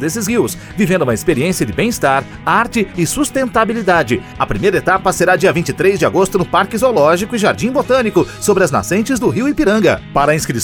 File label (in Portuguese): spot circuito; circuito rios e ruas